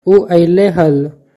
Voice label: Oo aile hal., Magahi; He had come.

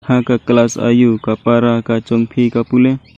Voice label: Khasi; In which class is your sister studying?; Ha ka klass aiu ka para ka jong ph

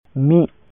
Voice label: Santali

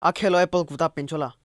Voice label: Akha lo apple khuta ranchola?, Lotha

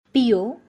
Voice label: "Pio", Bundelkhandi; Drink

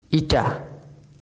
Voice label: "Eta", Sylheti

